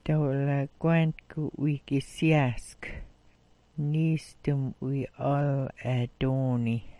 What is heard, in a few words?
"'wii", smalgyax